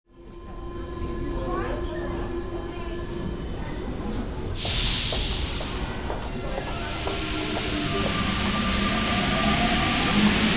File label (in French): poeme de metro